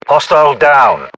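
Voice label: inform killfirm infantry, British; battlechatter; localized english; uk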